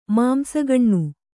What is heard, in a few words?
"ಮಾಂಸಗಣ್ಣು", Kannada; māmsagaṇṇu; noun